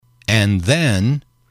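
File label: "and then", male, English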